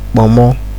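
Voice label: "kpomo", Nigerian Pidgin, male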